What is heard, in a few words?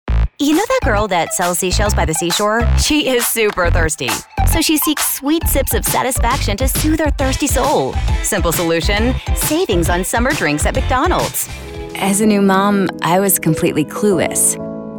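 English US, female, commercial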